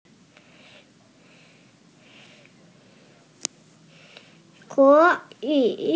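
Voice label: "can", Mandarin Chinese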